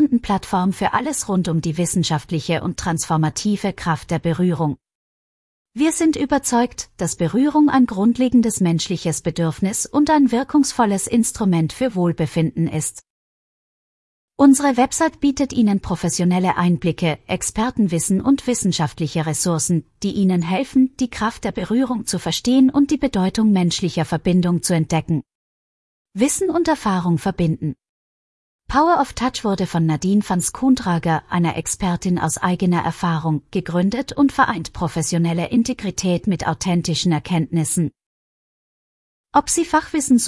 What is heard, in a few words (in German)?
text to voice, guten morgen; power of touch